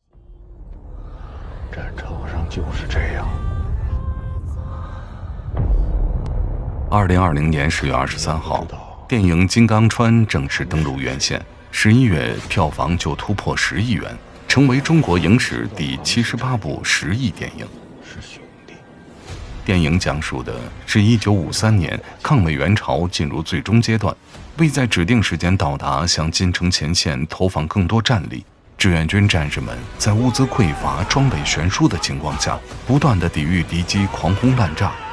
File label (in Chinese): 大气时尚纪录片旁白配音